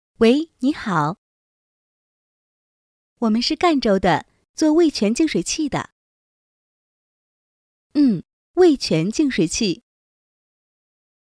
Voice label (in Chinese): 女, 模拟电话, 净水器; 卫泉